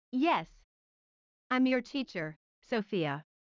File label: line Sophia fast